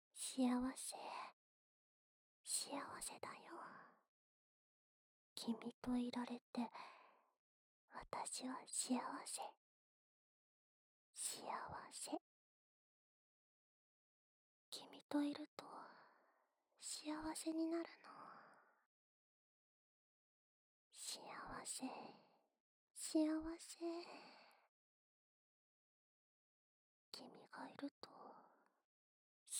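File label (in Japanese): 右耳 幸せ, 囁き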